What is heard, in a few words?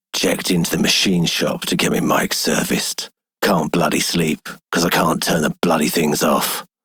Male Worker; Dialog; Warframe; Solaris Venus